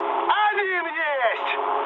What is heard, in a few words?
player killed enemy, mood high, speech ru; War Thunder